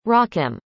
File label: "rakim", arabic; indonesian boy names